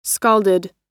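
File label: "scalded", American English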